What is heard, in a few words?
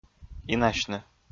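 "инасьны", Komi-Zyrian, male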